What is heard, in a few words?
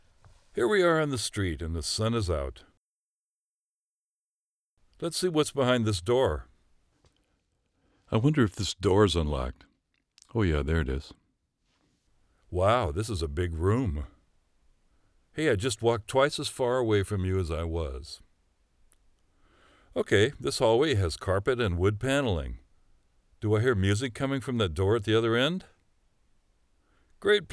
Dialog